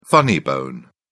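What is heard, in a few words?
"funny bone", British English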